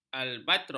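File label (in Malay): "albatros", Malay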